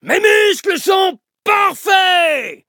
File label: upgrade, fr; cookie run